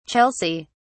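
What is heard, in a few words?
"chelsea", British English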